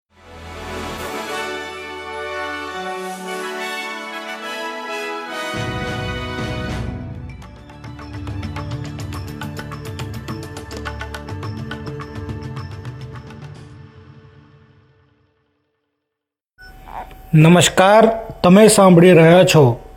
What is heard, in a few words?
gujarati newsflash; SBS Gujarati